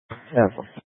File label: Sheriffs Office Central Court Security, scanner; Maricopa County; Thompson Peak